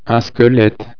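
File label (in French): "squelette", French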